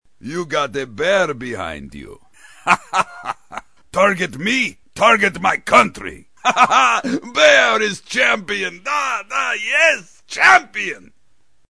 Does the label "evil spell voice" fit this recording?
No